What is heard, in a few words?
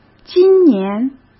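"今年", Mandarin; chinese flashcards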